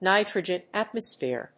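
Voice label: "nitrogen atmosphere", English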